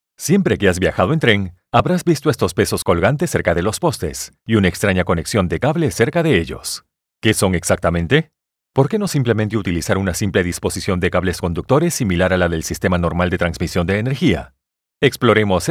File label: Spanish voiceover energetic